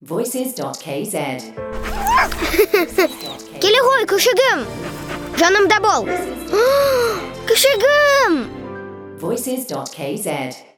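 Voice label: Kazakh kid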